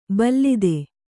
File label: "ಭಲ್ಲಾತ", Kannada